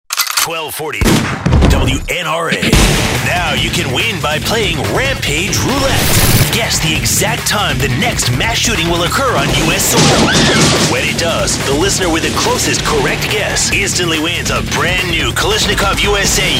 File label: contest promo; 1240 WNRA